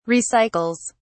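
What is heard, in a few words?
"recycles", British English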